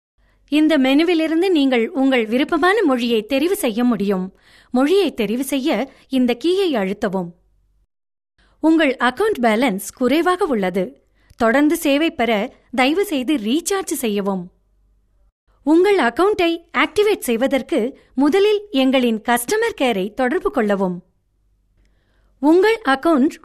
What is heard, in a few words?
Tamil